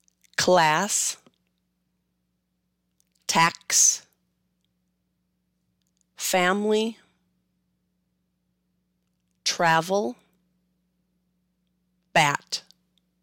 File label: Short A, American English; long and short vowels in American English